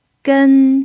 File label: "gen1", Mandarin pinyin